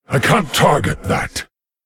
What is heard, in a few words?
Male Lich ERROR TARGET; en